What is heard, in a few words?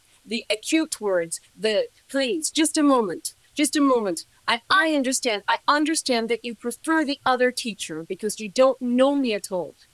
Voice Acting, es, F0